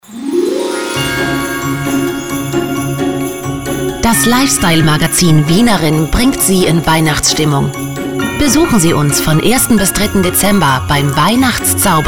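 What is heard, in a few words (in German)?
Radio Spot Weihnachten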